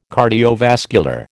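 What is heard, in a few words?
"cardiovascular", American English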